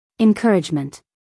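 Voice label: "encouragement", American English, female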